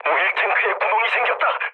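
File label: Korean damaged oil mood low, speech; War Thunder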